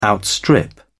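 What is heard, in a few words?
"outstrip", English, British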